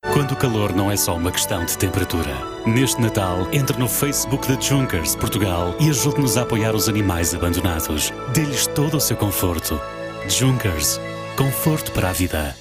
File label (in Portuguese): Portuguese